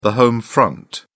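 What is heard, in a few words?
"home front", British English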